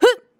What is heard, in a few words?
Vo battle f